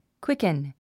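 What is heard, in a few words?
"quicken", English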